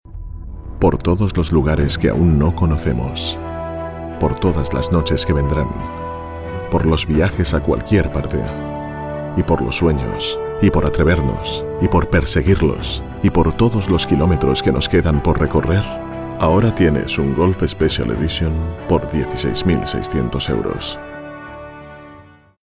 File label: male spanish tv comercial; ideasonora